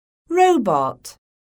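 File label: "robot", English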